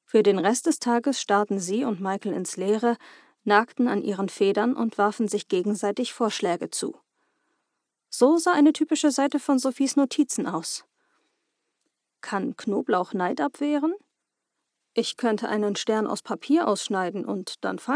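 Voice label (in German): Hörbuch Demo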